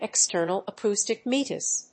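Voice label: "external acoustic meatus", English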